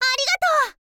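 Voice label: "arigatou", girl, Japanese; voice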